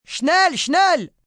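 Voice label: Hurry Hurry German, Calls, action, female, foreign, German; Talking; VoiceBox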